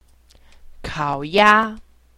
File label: "kaoya", Chinese; Survival Chinese